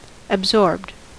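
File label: "absorbed", US English; pronunciation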